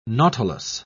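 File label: "nautilus", British English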